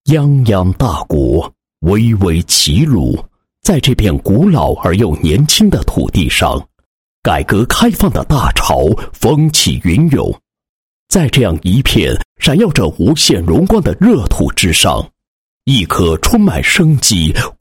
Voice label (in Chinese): 男 宣传广告 工贸有限公司宣传简介; 君胜工贸有限公司宣传简介